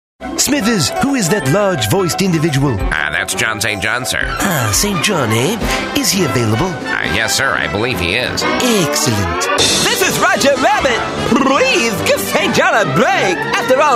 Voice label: men animation; From movie trailers to impressions - deep voice with remarkable range & intense delivery.